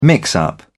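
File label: "mix-up", British English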